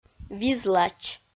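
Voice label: "визлач", Komi, female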